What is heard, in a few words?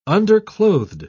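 "underclothed", British English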